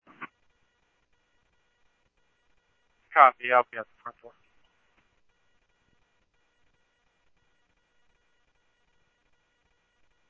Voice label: Scottsdale Police, scanner audio; H-Scottsdale

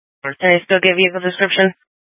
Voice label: Sheriffs Office, scanner

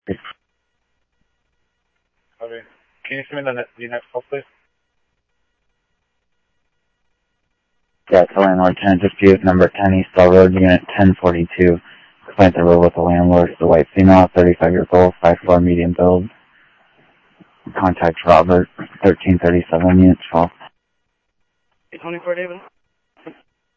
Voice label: Phoenix PD, scanner audio

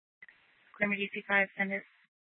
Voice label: Mesa PD Fiesta Dispatch; Mesa Simulcast

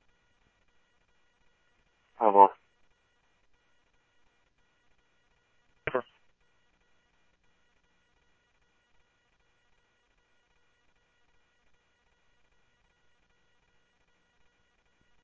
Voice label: Police, scanner

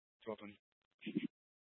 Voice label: Scottsdale Police, Simulcast

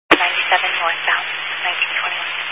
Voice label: Highway Patrol, Phoenix Metro West Area, scanner

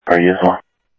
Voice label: Sheriff scanner audio

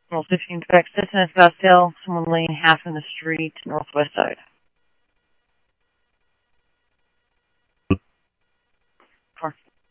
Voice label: Police, scanner audio trunk; H-Scottsdale; SCTSDLE PD - PD1 ScottsdalePolice

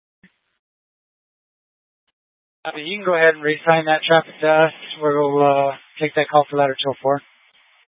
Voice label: Fire Departments Mesa Simulcast; TOPAZ

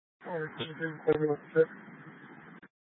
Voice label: Tempe PD, scanner; Rgnl Wireless Co-op (RWC)

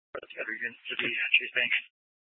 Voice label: PD Dispatch

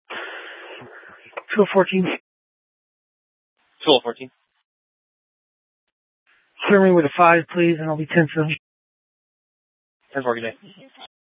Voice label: Dispatch; Scottsdale

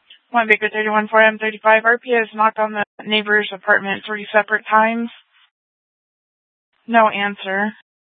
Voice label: Mesa PD Central Dispatch, scanner

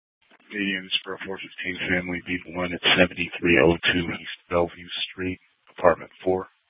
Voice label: Police South Dispatch; Regional Wrlss Cooperative (RWC) - Smlcst H- Scttsdle

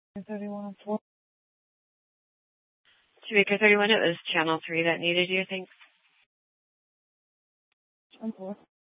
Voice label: Dispatch, scanner; TOPAZ Regional Wireless Cooperative (TRWC) - Mesa Simulcast; Mesa PD; 4 Superstition Dispatch

